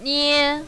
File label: "nie1", mandarin, female